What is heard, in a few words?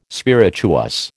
"spirituous", American